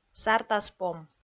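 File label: "сартаспом", Komi, female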